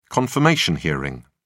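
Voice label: "confirmation hearing", British English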